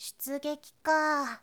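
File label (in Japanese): "syutugekika", Japanese boy; shonen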